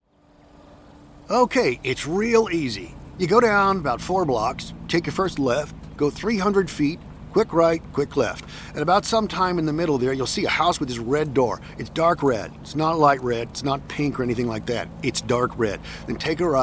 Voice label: radio; PhRMA Maze